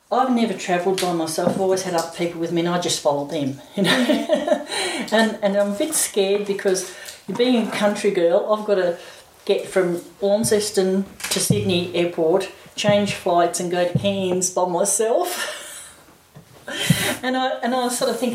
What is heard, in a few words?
Tasmania Australia White Female Middle Age; australia-oceania